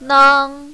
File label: "nang1", mandarin, female